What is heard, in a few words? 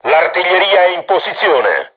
art charged, crew tanks italian; War Thunder